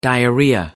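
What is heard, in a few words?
"diarrhea", British English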